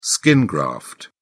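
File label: "skin graft", British English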